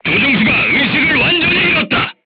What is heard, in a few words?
voice message driver contused, crew tanks korean